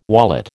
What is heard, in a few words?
"wallet", American